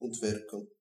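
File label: screwdriver description Bulgarian; tuxpaint-stamps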